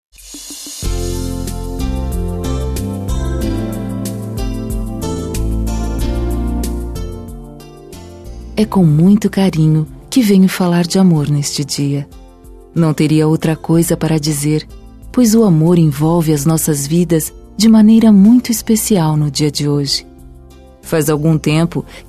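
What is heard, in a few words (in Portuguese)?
Namorados Com Aniv de Namoro, female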